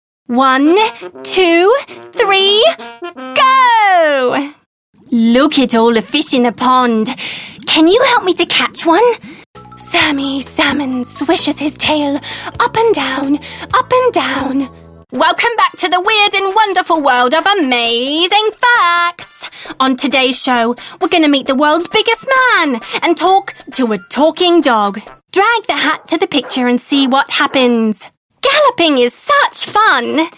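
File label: British, toys; UK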